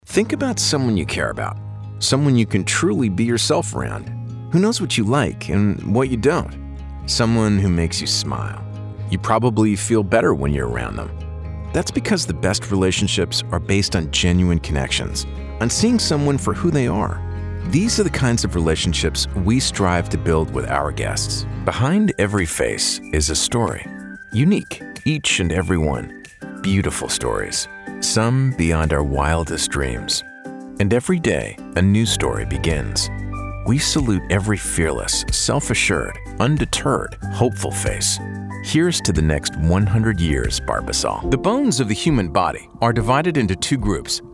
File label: corporate narration